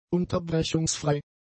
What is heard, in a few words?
"unterbrechungsfrei", German; adjectives